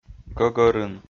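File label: "гӧгӧрын", Komi, male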